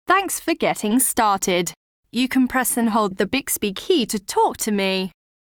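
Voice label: wake up skipped British English; Bixby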